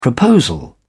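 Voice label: "proposal", British English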